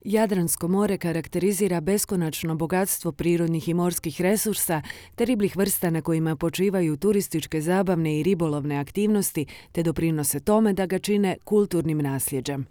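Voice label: Croatian, female, e-learning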